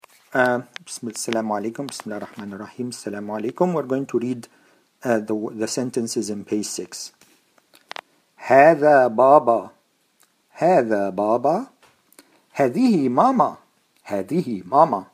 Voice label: Pronounce, Arabic